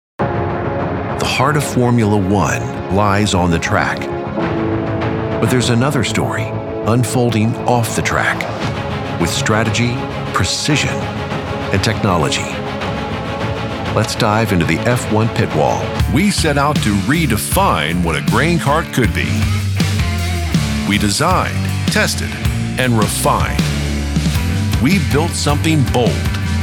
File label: narration, male audiobook narrator